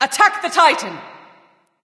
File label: vox attack m; smite; nemesis; voicepack